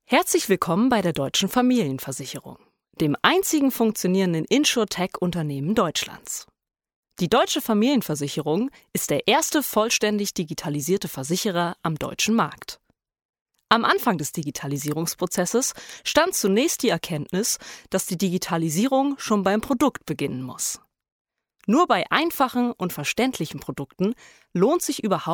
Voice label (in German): Imagefilm